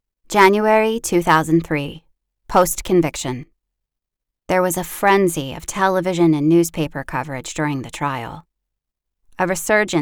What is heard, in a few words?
1st person Memoir